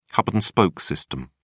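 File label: "hub-and-spoke system", English, uk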